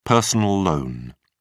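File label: "personal loan", British English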